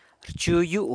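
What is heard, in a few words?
"rchu yu", San Lucas Quiaviní Zapotec; moves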